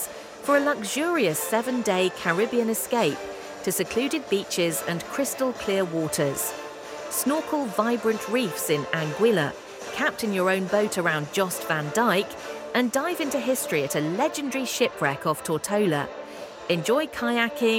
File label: promo with music; Windstar